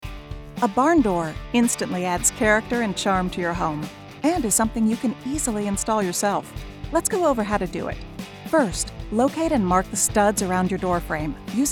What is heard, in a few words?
eLearning